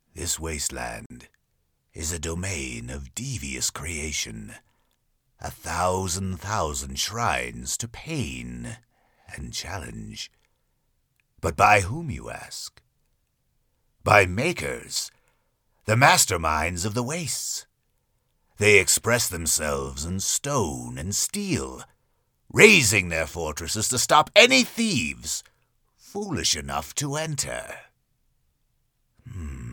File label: Animated Film Character; THE GATEKEEPER